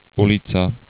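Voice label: "ulica", Slovak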